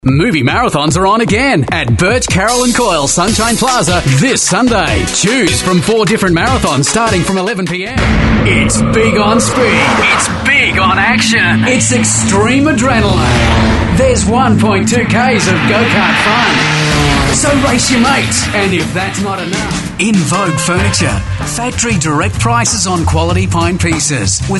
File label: male talent retail